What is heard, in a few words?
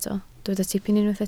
"tipyn", Welsh